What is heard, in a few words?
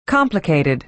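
"complicated", American English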